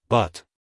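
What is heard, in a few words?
"but", American English, male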